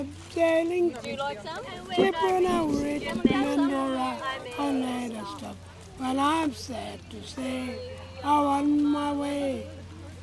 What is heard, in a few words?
Darwin Australia Tribal Age 55; australia-oceania